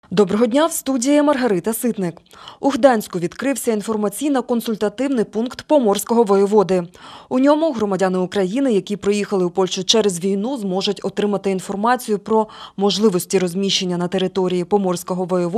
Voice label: Ukrainian News